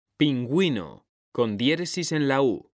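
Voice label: "pingüino", Spanish, male; mekanta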